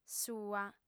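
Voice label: "sua", lingua sarda, female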